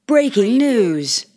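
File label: News Female Breaking News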